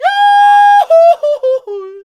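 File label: voice, Foreigns; Sound & Vision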